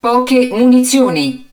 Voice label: HUD Ammunition Low, Italian; XBOX; Battle Engine Aquila

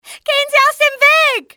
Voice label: stay out, civ fmale; XBOX

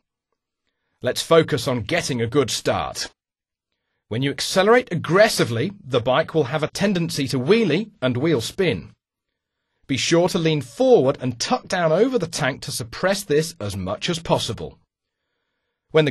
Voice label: throttle japanese; MotoGP 3

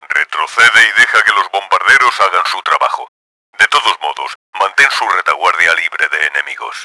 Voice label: hang back, Spanish; Battle Engine Aquila; XBOX

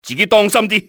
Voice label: watch yourselves, enemy cantonese male; XBOX

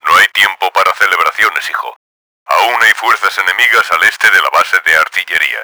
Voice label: defend base, Spanish; Battle Engine Aquila; XBOX

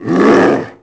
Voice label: man attack; Pirates Of The Caribbean; DUEL

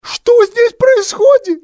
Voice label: whats happen, male; XBOX; civ

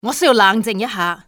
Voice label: left alone, civ fmale; XBOX

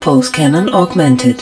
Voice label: pulse cannon augmented, english hud

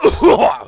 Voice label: fall, negative; Splashdown; XBOX

